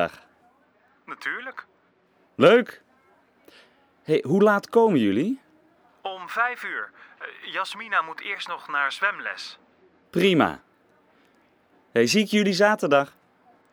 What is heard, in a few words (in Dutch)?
Voicemail; SPREEKTAAL Basis